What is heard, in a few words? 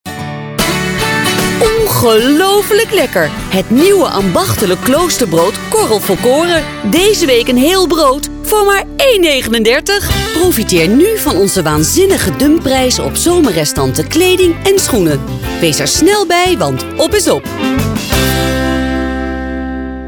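Dutch enthusiastic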